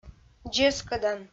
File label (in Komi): "дзескӧдан", Komi